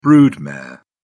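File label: "brood mare", British English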